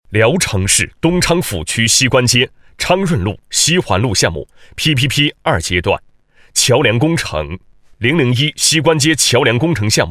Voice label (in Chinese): 男 项目概况; 西关街桥梁工程